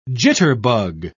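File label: "jitterbug", British English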